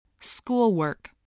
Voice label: "schoolwork", english spelling